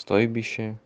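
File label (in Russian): Стойбище русский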